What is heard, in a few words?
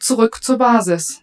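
German, return base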